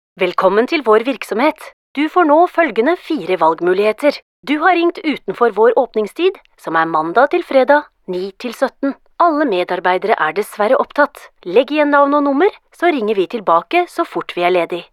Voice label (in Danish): norsk kvinde uformel